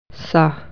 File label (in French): "his her", French